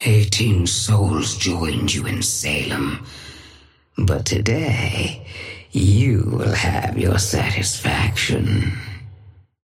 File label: female ally hornet start